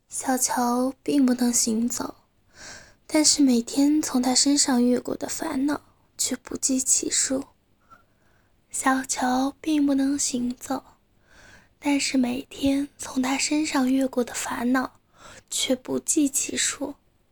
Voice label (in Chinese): 散文句子配音